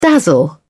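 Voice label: "dazzle", English, British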